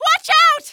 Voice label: watch out, female; Half-Life 2